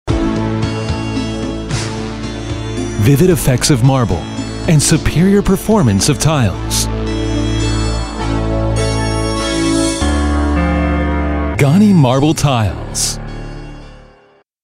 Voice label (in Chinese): 外籍男; Ganyi Marble